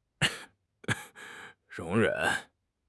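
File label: "容忍", Mandarin Chinese; HSK6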